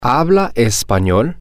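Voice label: do you speak language, spanish, male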